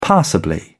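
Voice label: "passably", British English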